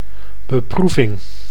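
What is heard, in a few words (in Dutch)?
"beproeving", Dutch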